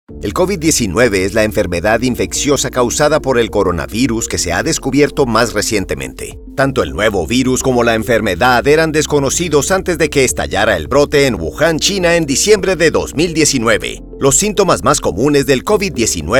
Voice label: MEDICAL NARRATION SPA

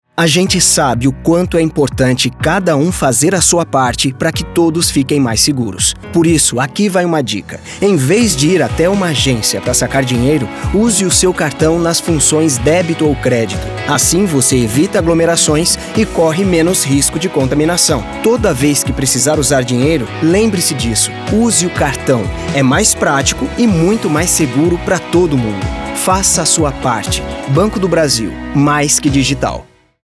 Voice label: Spot; Banco do Brasil; Soluções Digitais

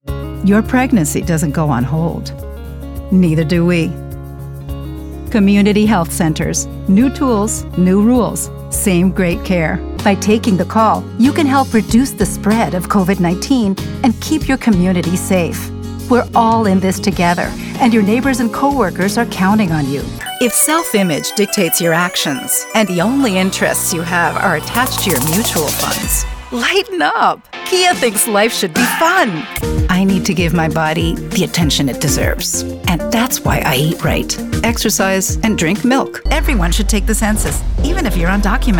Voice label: Commercial

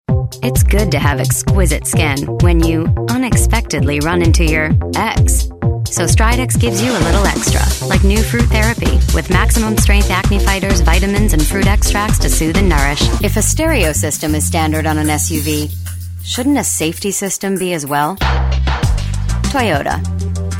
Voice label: Commercial

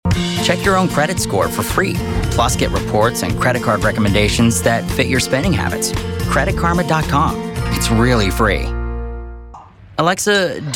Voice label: Commercial

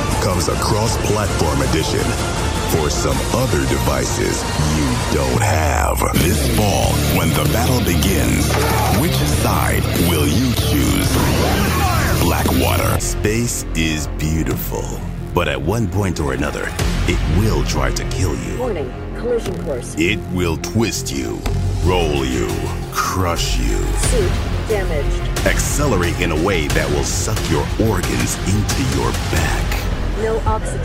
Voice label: GAME TRAILERS

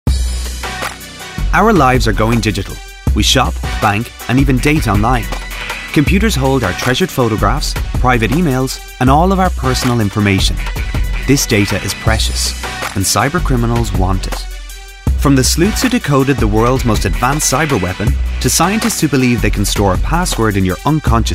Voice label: Narrative Reel

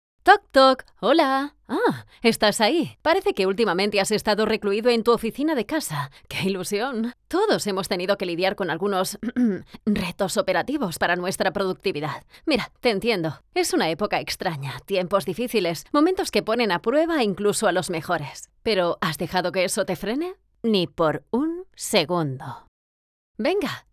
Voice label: Spanish SPOT voz muy dinamica

